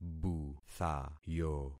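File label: "buthayo", concatenated syllables; Affixing experiment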